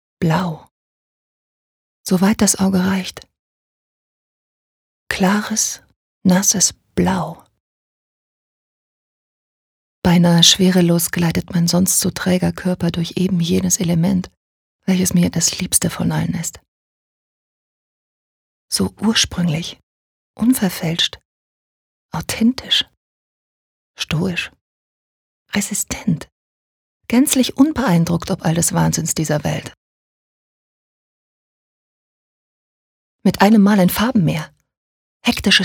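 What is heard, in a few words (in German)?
Schauspiel Melancholisch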